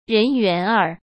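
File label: "人缘儿", Mandarin Chinese; chinese flashcards; hsk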